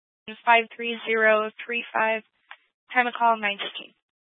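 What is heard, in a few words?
Incident Tac 3, Scanner audio recording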